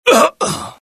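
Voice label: death, dialogue generic Italian; mohaa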